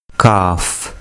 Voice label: "kaaf", urdu